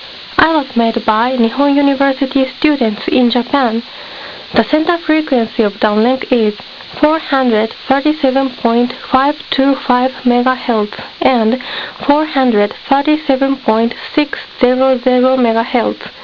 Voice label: SPROUT Digitalker